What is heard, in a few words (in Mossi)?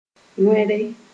"wẽre", Moore